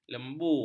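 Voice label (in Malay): "lembu", Malay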